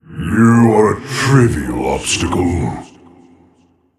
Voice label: Demon Greetings